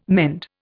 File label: "mend", Indian